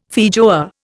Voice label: "feijoa", Indian